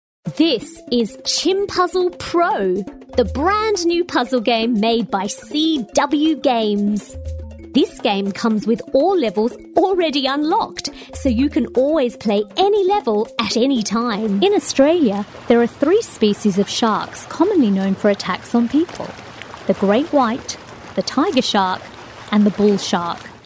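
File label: Australian English, female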